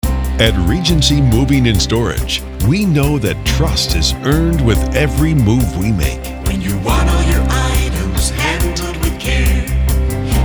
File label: Radio; Regency Radio 60